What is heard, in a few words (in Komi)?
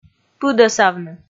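"пыдӧсавны", Komi, female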